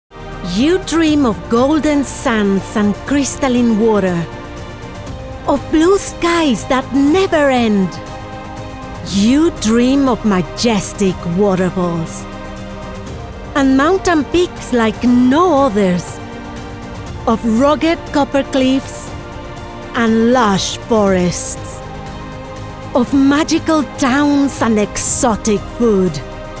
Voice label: Engaging, Adventure, English; Mexican female VoiceOver artist and actor; bilingual